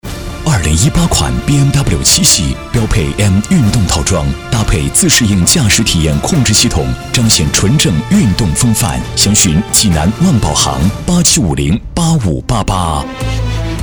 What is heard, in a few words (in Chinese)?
男 广告 品质; 宝马七系